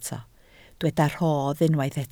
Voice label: "rhodd", Welsh